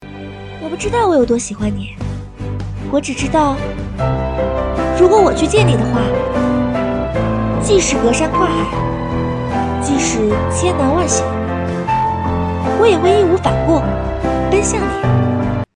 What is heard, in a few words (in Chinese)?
念白